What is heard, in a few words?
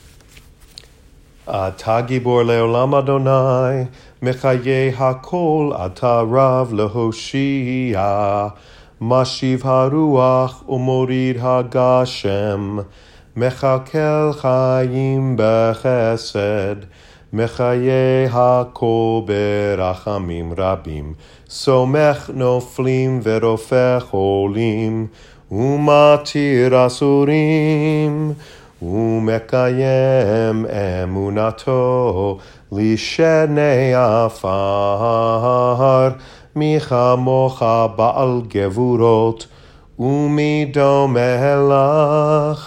male; Gevurot